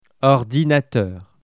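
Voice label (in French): "ordinateur" French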